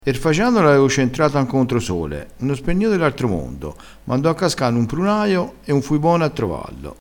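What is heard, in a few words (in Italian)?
"bono", vocabolario dialettale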